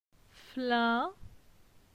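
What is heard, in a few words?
"Flin", French; phonetique; la syllabe in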